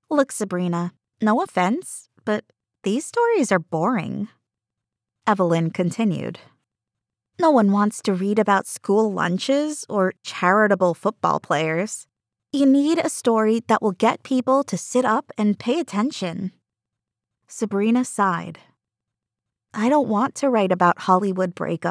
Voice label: Middle Grade 3rd person